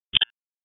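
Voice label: Police Spec Ops Tac, radio; Raleigh; wake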